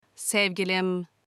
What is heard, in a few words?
love in turkish